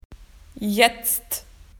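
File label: "now", Australian English; PictureDictionary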